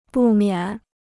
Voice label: "不免", Chinese

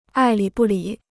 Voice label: "爱理不理", Chinese

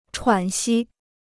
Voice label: "喘息", Chinese

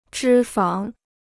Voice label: "脂肪", Chinese

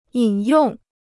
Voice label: "引用", Chinese

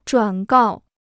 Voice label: "转告", Chinese

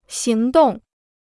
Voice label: "行动", Chinese

